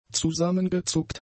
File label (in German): "zusammengezuckt", German; adjectives